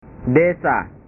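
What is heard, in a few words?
"desa", Indonesian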